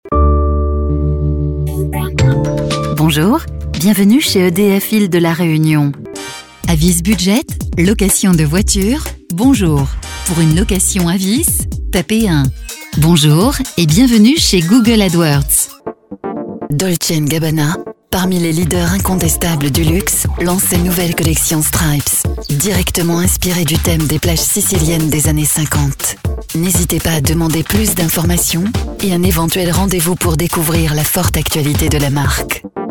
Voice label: Frances Francia Femenina Adulta

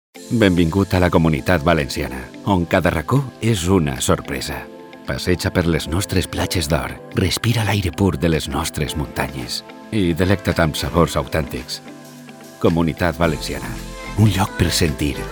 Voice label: Valenciano Espana Masculina Adulta

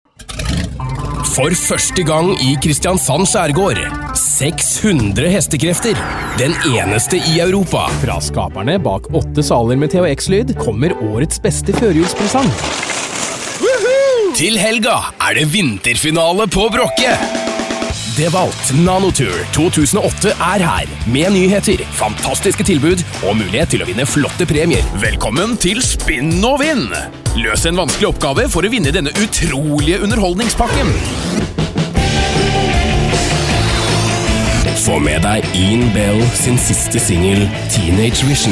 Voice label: Noruego Noruega Masculina Adulta

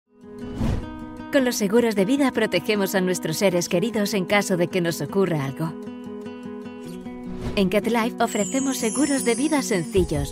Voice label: Espanol Espana Femenina Joven

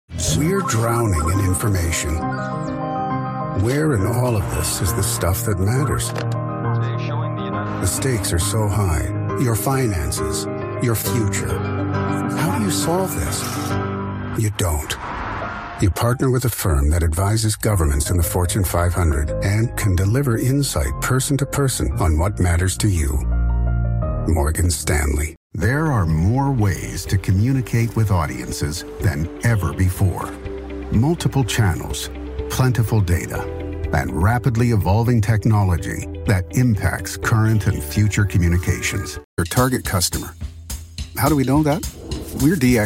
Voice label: Ingles Estados Unidos Masculina Senior

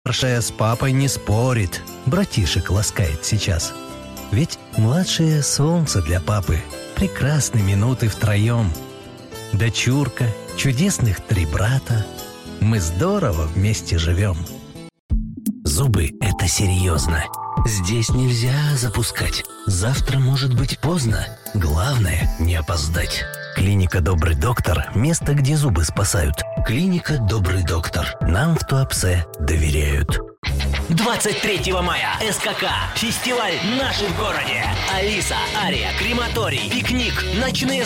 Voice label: Ruso Rusia Masculina Adulta

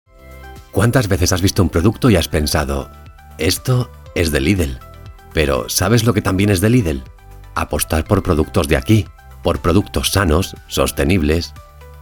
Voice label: Espanol Espana Masculina Adulta

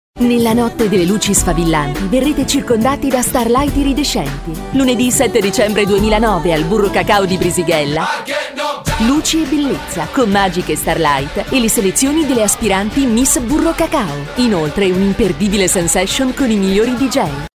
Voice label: Italiano Italia Femenina Adulta

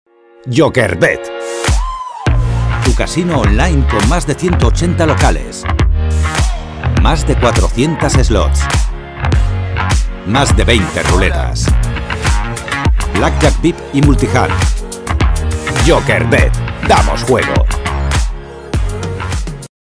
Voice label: Espanol Espana Masculina Senior

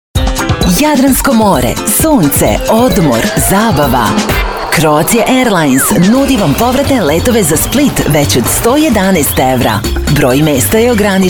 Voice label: Croata Croacia Femenina Adulta

